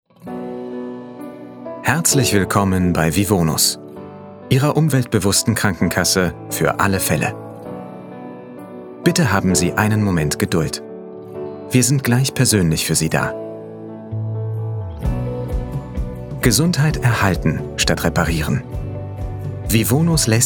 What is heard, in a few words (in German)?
DE Warteschleife; Vivonos